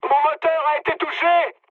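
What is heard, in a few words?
damaged engine, mood high, French; War Thunder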